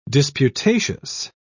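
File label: "disputatious", British English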